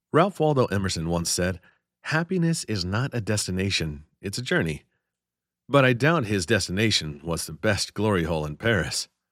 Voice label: LGBTQ Romance, deadpan charmer